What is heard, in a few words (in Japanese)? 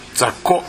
"zakko", minami yamagata